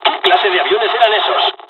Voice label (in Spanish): dialog, dialogs spanish; War Thunder